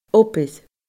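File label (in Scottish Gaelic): "obair", Scottish Gaelic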